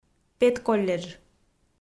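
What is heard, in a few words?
"педколледж", Komi, female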